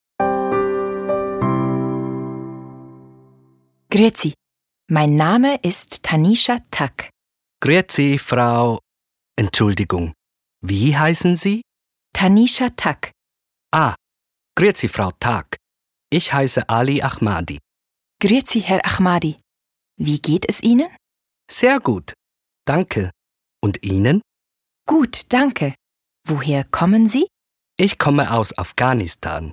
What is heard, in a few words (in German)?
Dialog